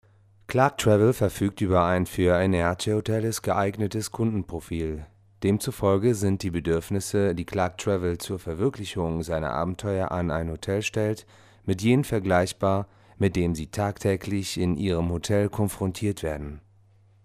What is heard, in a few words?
locutor alemán german voiceover; Deutscher Sprecher